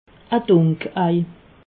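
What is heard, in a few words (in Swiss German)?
"dunkei", Alsatian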